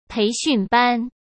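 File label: "培训班", Mandarin Chinese; chinese-flashcards; hsk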